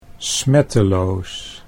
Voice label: "smetteloos", Dutch

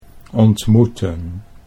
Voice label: "ontmoeten", Dutch

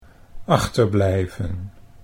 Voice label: "achterblijven", Dutch

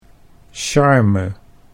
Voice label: "charme", Dutch

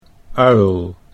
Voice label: "uil", Dutch

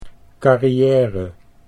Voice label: "carriere", Dutch

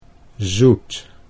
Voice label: "zoet", Dutch

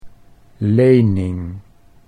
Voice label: "lening", Dutch

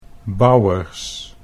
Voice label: "bouwers", Dutch

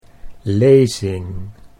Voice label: "lezing", Dutch

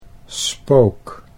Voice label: "spook", Dutch

